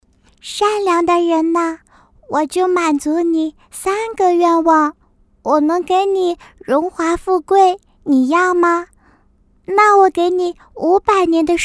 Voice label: 女童音 女童

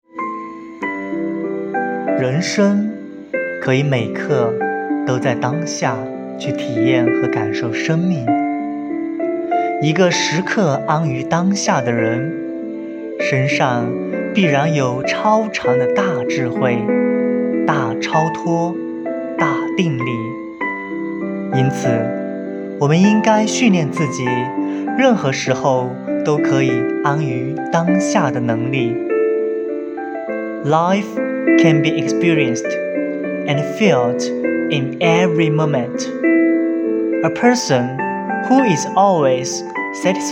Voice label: 中英文朗读; 人生可以每刻都在当下去体验和感受生命

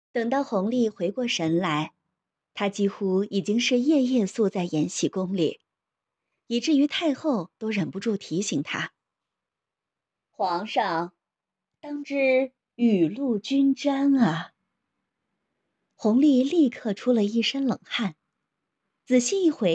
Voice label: 古风小说

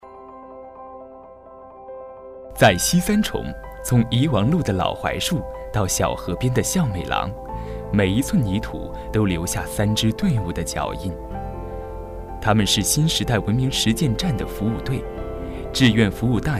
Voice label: 宣传片配音美丽乡村; 西三重

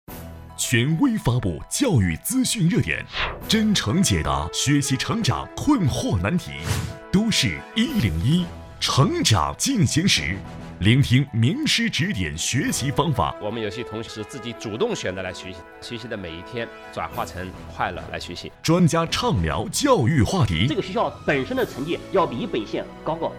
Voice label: 有点大气的感觉

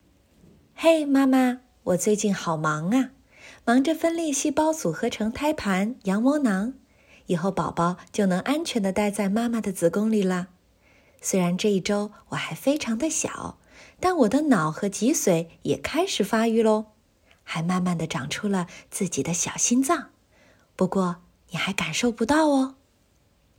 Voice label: 育儿节目试音

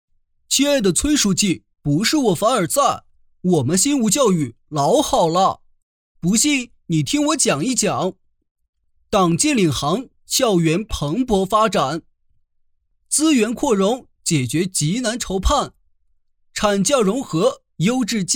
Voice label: 卡通 角色 憨厚; 亲爱的崔书记

